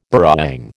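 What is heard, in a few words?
"burrawang", American English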